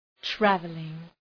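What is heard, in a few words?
"travelling", English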